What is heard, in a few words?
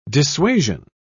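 "dissuasion", British English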